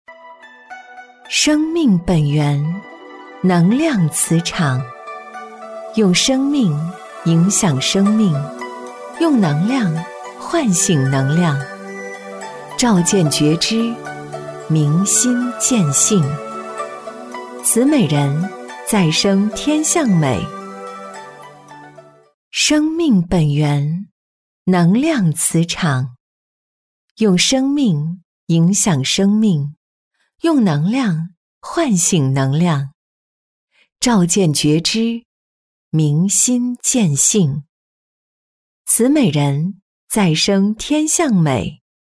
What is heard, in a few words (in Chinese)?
女 广告配音 高端 知性大气; 大气稳重; 质感磁性